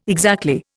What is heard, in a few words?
"exactly", Indian